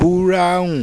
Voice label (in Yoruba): "BURAUN", Yoruba; Brown